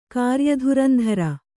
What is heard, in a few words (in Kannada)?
"ಕಾರ್ಯಧುರಂಧರೆ", kannada